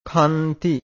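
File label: "khanti", Pali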